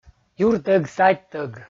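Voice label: "юртӧг-садьтӧг", Komi, male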